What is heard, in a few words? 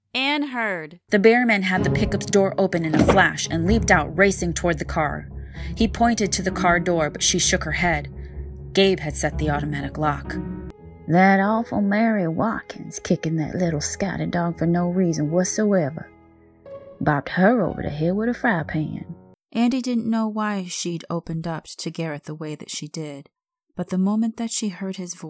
Narration